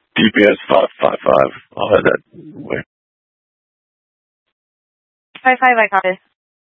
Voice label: Mutual Aid-Intersystem Interop B, scanner